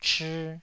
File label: "chii2", Taiwanese